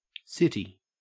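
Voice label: "city", English, Australian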